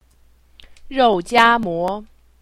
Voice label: "roujiamo", Chinese; Survival Chinese